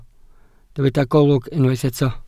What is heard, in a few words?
"golwg", Welsh